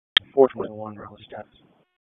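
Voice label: Police Southeast District Dispatch, p25; Raleigh; wake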